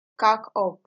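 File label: "kakup", Nigerian Pidgin, female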